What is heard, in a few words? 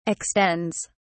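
"extends", British English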